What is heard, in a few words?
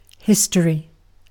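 "history", British English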